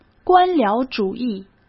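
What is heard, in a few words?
"官僚主义", Mandarin Chinese; chinese flashcards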